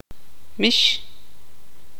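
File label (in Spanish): "gato", Tz'utujil, female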